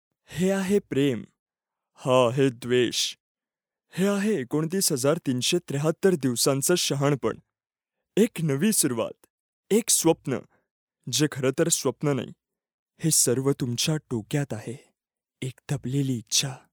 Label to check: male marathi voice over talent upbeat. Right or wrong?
Right